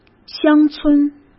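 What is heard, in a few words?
"乡村", Mandarin Chinese; hsk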